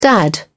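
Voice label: "dad", British English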